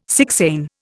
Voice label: "sixain", Indian